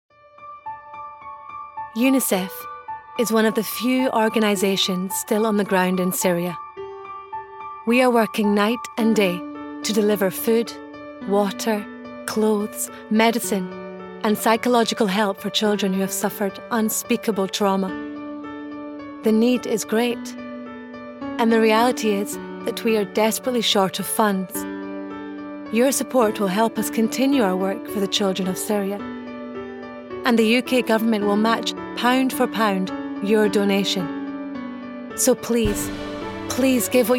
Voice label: female arabic and english scottish accent charity appeal